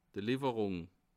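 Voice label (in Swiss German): "lieferung", Bas Rhin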